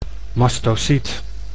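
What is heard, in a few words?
"mastocyt", Dutch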